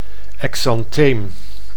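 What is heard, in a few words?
"exantheem", Dutch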